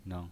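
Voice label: "neng", pinyin voice, Mandarin Chinese, neutral tone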